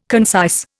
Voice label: "concise", Indian